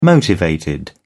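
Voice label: "motivated", British English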